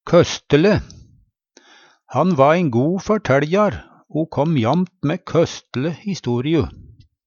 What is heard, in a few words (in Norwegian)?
"køstele", Numedalsmål; Adjektiv